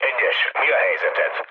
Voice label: hun chat, speech hun; War Thunder

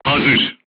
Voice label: voice message charged, crew tanks turkish

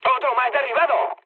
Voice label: player killed enemy, mood med, speech

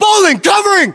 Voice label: US response covering, battlechatter, english

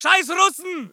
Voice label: voice ger infantry

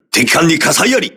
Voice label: voice message enemy on fire, crew ships japanese

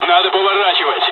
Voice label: dialogs russian; War Thunder

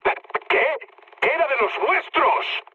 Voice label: player killed ally, mood med, speech; War Thunder

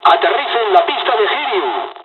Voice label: jpn landing, dialogs spanish; midway; War Thunder

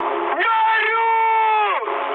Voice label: on fire, mood high, speech ru; War Thunder

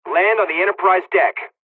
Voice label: landing, polish; midway us; War Thunder

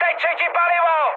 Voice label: tank damaged, speech cze, mood high; War Thunder

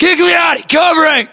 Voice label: response covering, battlechatter us; english

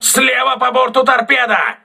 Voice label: voice message torpedo left, crew ships russian

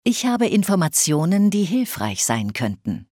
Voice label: Have Information, Speech German Advisors; Civ5

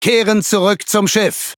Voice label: Speech Pilots Torpedo Land, DE; World Of Warships

